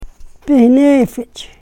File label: "pihneefich", karuk